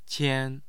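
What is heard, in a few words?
"qian1", Mandarin Chinese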